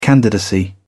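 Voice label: "candidacy", British English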